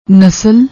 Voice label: "nasal", Punjabi